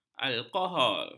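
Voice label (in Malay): "alkohol", Malay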